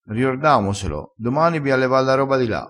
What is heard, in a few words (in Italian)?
"riorda", crespinese